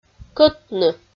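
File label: "кӧтны", Komi, female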